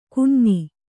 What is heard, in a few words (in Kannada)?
"ಕೊಞ್ಞೆ", Kannada